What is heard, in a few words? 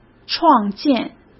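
"创建", Mandarin Chinese; hsk; chinese-flashcards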